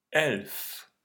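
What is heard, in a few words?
"Elf", German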